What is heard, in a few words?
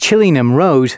announcements male